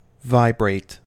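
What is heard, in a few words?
"vibrate", US English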